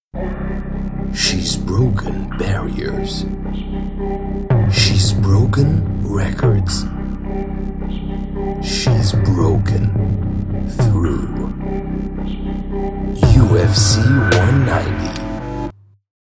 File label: Trailer ENG